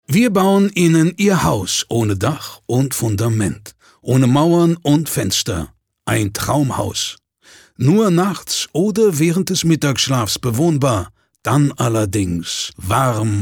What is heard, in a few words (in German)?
Werbung Freundlich